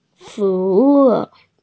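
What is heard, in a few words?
"fu2wu4", Mandarin Chinese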